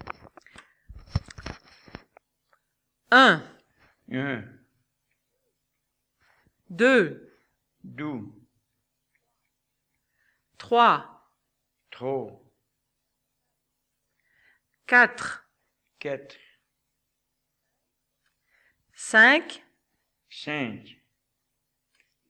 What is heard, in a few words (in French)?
Diverses phrases en patois et leurs traductions